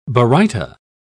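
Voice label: "baryta", British English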